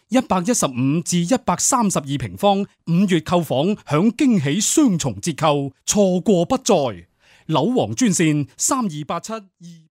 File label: Cantonese male boy